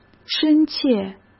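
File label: "深切", Mandarin Chinese; chinese flashcards; hsk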